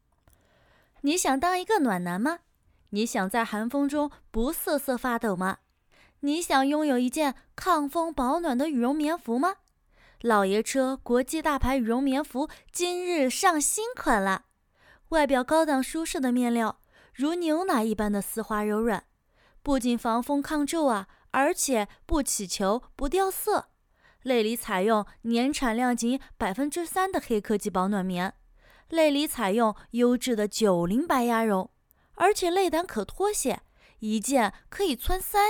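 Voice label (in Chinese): 羽绒棉服, 女 青年 口播; 老爷车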